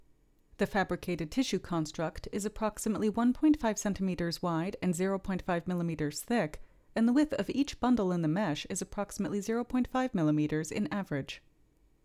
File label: Voiceover